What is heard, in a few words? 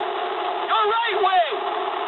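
English right wing mood med; War Thunder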